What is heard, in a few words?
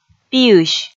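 "пиысь", Komi, female; audio dict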